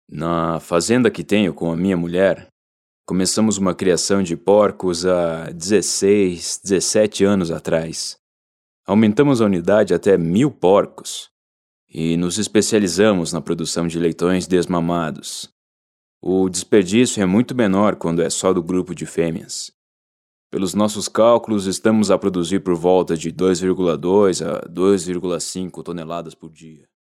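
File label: Brazilian Portuguese, male, narration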